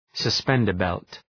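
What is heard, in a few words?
"suspender belt", English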